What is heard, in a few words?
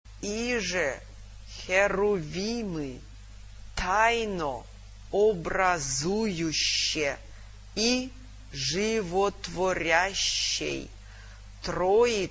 cherubic hymn pron